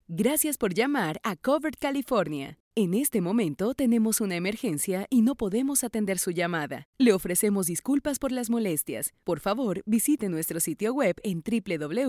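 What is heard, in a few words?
Spanish Latin America IVR; GoLocalise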